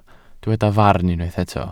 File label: "farn", welsh